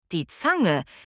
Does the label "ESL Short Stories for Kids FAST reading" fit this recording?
No